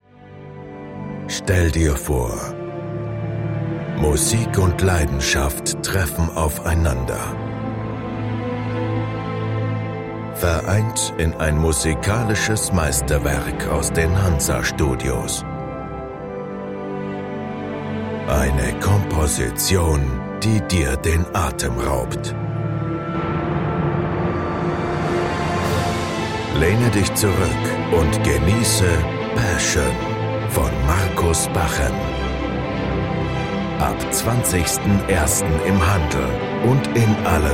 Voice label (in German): Werbung